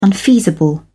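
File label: "unfeasible", English, uk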